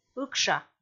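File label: "ыкша", Komi, female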